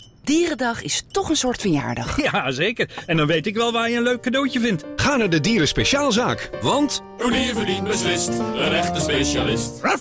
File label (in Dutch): radiocommercial dierendag